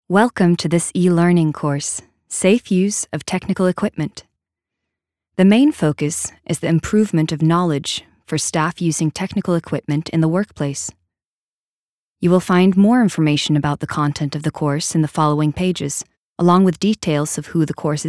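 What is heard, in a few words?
us elearning, American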